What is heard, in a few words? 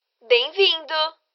bonjour, voix